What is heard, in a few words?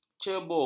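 "cebur", Malay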